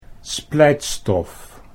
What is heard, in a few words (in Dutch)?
"splijtstof", Dutch